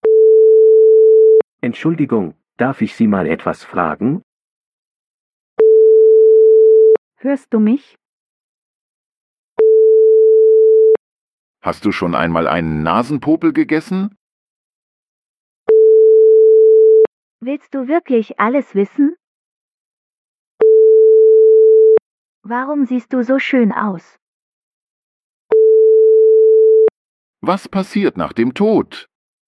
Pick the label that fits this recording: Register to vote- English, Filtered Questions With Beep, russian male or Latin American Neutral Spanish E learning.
Filtered Questions With Beep